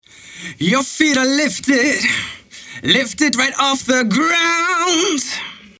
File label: vocal dry